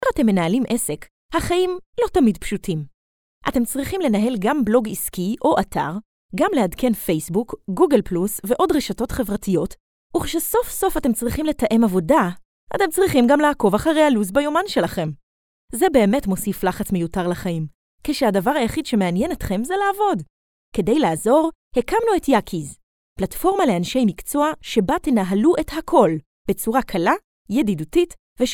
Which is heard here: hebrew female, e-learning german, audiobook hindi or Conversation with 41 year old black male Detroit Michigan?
hebrew female